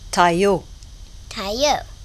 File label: cajun french